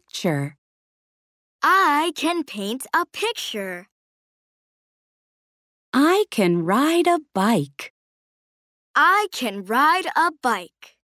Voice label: Echo Read; I Am Special